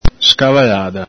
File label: "scavalada", Veneto